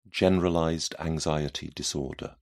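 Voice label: "generalized anxiety disorder", British English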